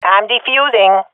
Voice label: defusing, swat fem; vo; Counter Strike Model Pack; CS:GO

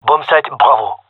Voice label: gendarmerie male; player vo

